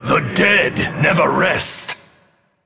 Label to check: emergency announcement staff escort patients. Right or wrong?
Wrong